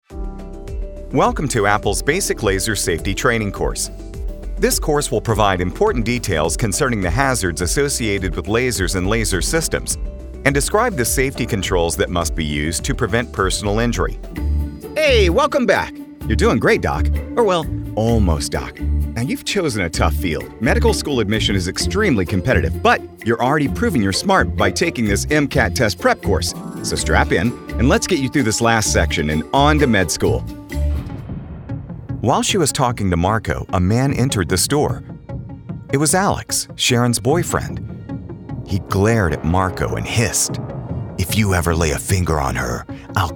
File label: E Learning